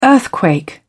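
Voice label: "earthquake", British English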